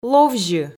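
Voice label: "ловзьы", Komi, female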